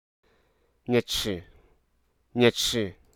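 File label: Hruso Aka; cellar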